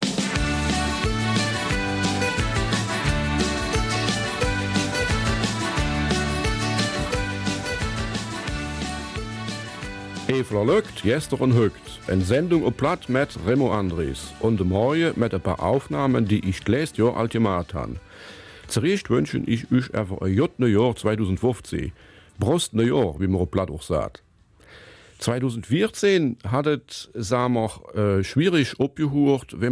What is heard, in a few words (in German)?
Eifeler Mundart; Generalstreik am 15 Dezember